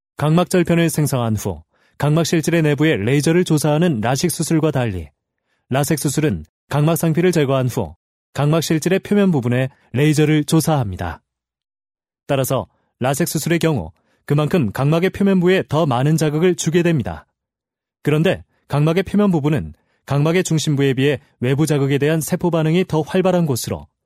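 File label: Korean, male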